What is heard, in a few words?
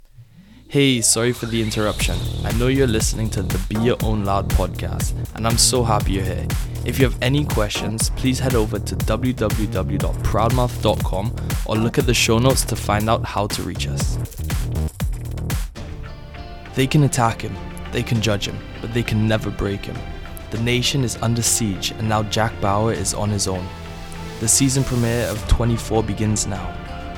Male